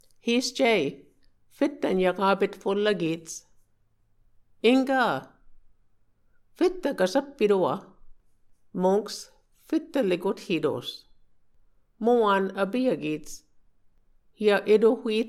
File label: Lets Go for a Walk dialogue, maskoke; going on a walk